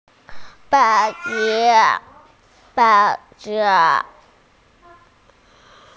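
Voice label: "xia1 mi5", Mandarin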